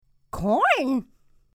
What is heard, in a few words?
CORN, English